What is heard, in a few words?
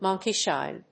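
"monkeyshine", English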